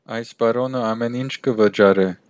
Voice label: pay, armenian western